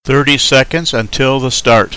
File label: 30 sec till start, Male English